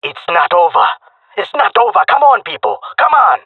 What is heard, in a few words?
round losing, male; jungle; Counter Strike Model Pack; CS:GO